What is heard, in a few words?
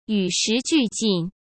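"与时俱进", Mandarin Chinese; hsk; chinese-flashcards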